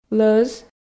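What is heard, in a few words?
"лӧз", Komi, female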